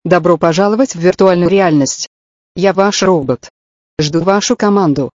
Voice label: robot

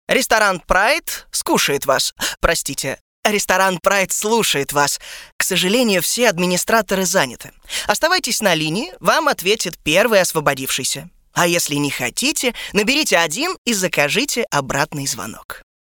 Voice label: IVR; PRIDE

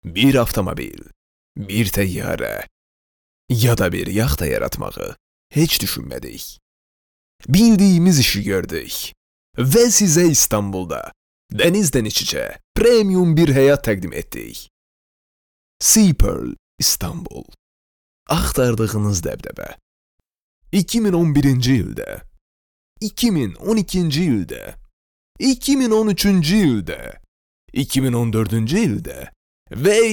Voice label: Azeri voice

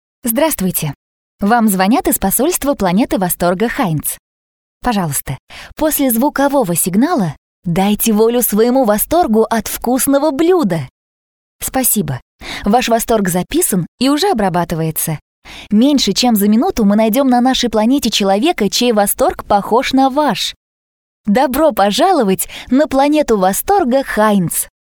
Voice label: IVR; Heinz

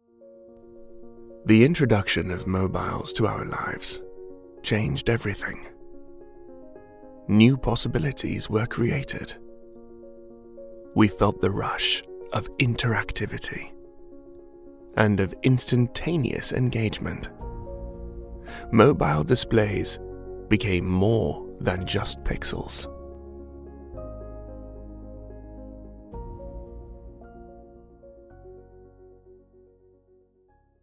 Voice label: man british

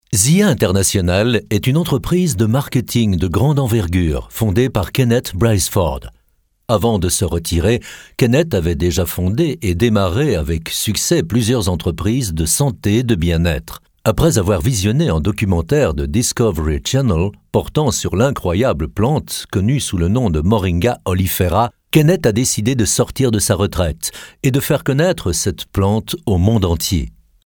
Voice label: french voice

